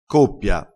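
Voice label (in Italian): "coppia", vocabolario dialettale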